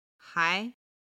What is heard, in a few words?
"nan2 hai2", Chinese